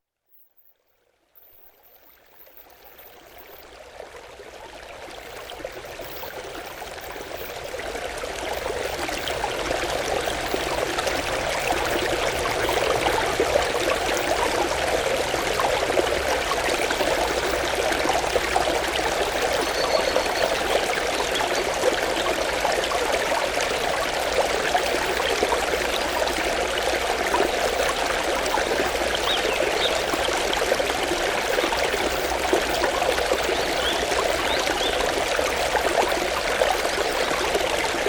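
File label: stream subliminal induction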